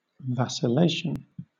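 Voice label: "vacillation", British English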